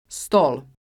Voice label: "Stol", Croatian

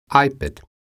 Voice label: "ipad", croatian

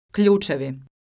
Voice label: "Kljucevi", croatian

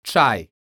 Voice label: "caj", Croatian

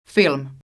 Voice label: "film", Croatian

